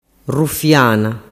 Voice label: "rufiana", dialettu